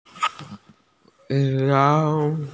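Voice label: "xiong2", Mandarin Chinese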